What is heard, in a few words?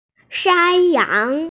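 "shan1yang2", Mandarin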